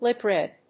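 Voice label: "lip read", English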